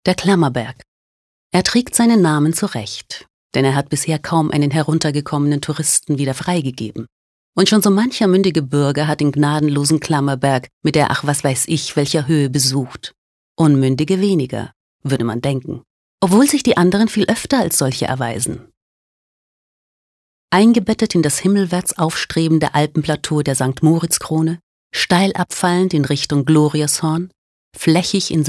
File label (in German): Kommentar Neutral